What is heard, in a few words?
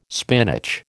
"spinach", American